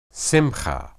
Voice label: "simhah", Hebrew

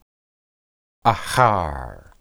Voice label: ahar, hebrew

